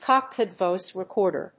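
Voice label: "cockpit voice recorder", English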